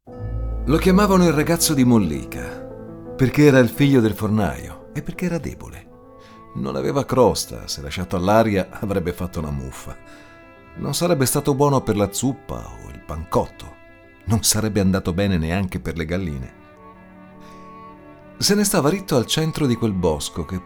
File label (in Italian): audiolibro